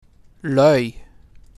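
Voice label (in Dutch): "Lui", Dutch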